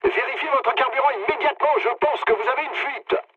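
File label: French tank damaged mood low; War Thunder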